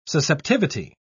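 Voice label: "susceptivity", British English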